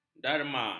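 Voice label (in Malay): "darma", Malay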